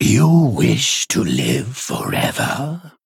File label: Male Arakkoa Play